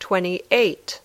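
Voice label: "twenty-eight", English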